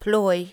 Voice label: "plooi", english